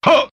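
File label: "huh", vocal sample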